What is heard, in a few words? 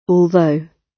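"although", British English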